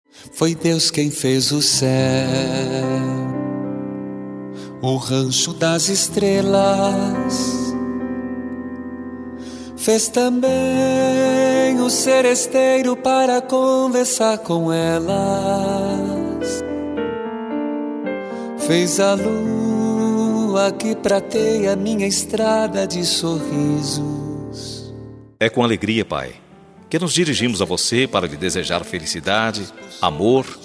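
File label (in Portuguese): ANIV RELIGIOS PAI male, telemensagem aniversario religioso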